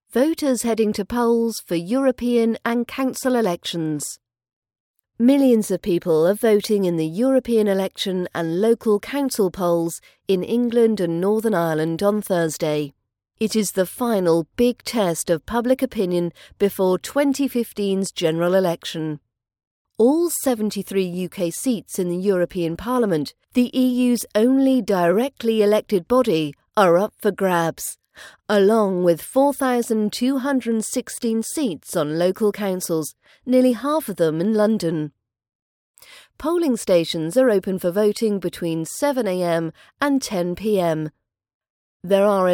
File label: news article recording for british accent practice; its voting day today